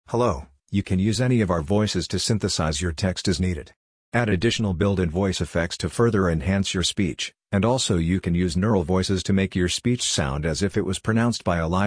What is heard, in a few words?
Male